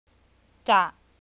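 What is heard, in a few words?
"จะ", Thai, low tone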